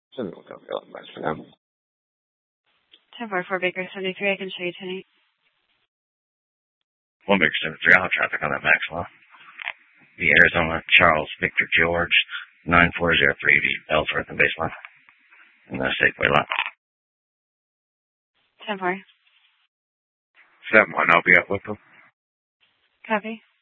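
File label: Mesa Police Department Superstition Dispatch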